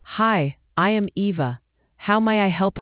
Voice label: conversation